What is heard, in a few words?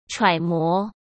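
"揣摩", Mandarin Chinese; chinese-flashcards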